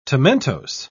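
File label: "tomentose", British English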